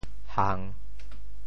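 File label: "hang3", Teochew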